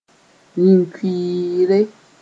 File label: "yĩn-kʋɩɩre", Mooré